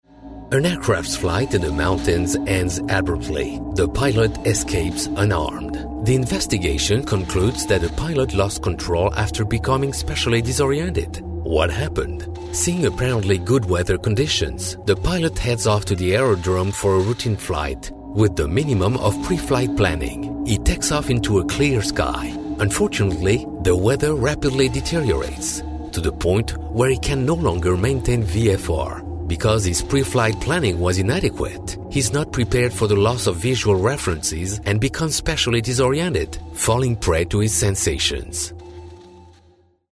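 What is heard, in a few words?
French, male, English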